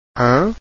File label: a nasal, Portuguese vowels